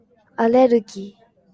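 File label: "allergy", Japanese, female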